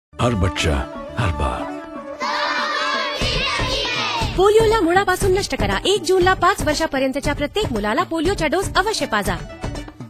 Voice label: radio spot, Marathi; polio

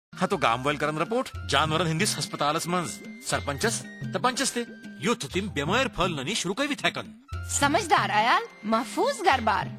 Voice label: Radio PSA (Kashmiri); Prevention; Report

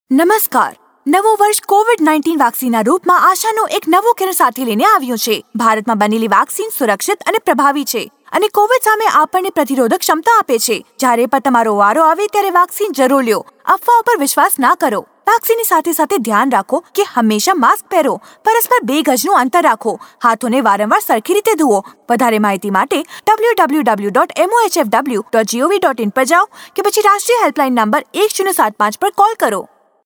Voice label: Gujarati Caller Tune

